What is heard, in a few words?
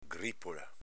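"gripola", Venetian dialect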